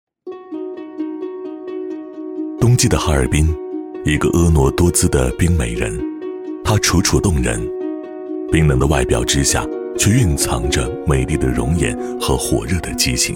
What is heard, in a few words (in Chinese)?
男 独白 厚重 深情; 冬日恋歌